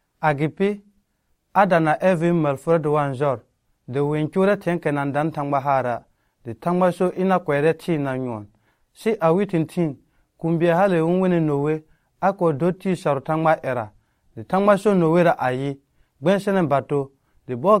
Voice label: Lobiri; Noe Bato; Noah and the Flood